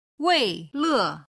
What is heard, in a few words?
"wei4le5", chinese